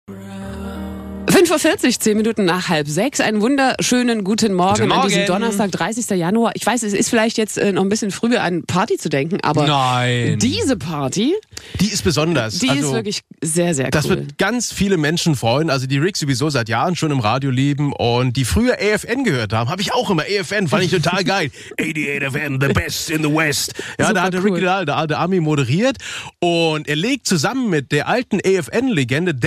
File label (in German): radiowerbung; rs2